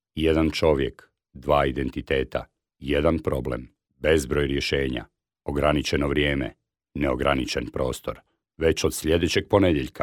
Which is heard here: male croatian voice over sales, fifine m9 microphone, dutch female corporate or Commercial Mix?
male croatian voice over sales